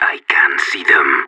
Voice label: radio enemy spotted, separatist; player vo